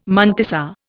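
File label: "mantissa", Indian English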